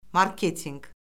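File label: "marketinq", Azerbaijani; Orfoqrafiya Lüğəti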